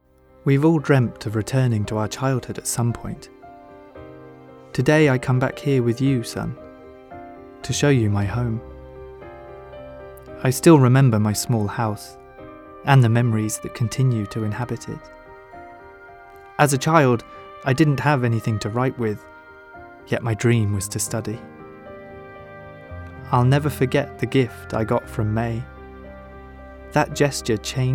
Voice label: male british english ngo comercial